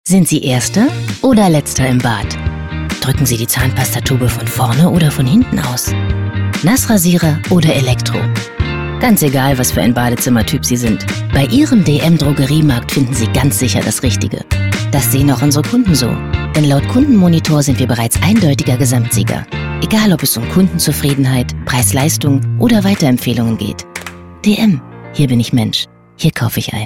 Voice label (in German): Funkspot; dm Drogeriemarkt; bunch sound; Berlin; Funkspotproduktion